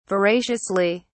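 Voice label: "veraciously", British English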